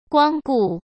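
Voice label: "光顾", Mandarin Chinese; chinese-flashcards; hsk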